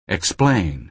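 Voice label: "explain", American English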